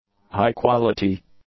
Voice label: "high-quality", English; adjectives

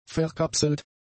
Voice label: "verkapselt", German; adjectives

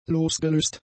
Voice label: "losgelöst", German; adjectives

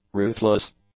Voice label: "ruthless", English; adjectives

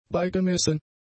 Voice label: "beigemessen", German; adjectives

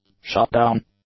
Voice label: "shutdown", English; nouns

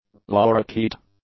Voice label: "Lorikeet", English; nouns

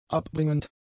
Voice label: "abbringend", German; adjectives

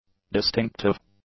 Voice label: "distinctive", English; adjectives

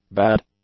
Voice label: "bad", English; adjectives

